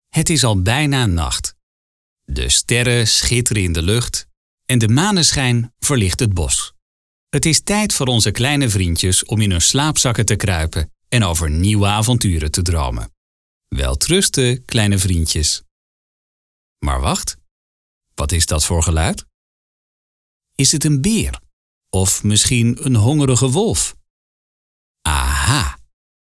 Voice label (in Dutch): kinderen game verhalend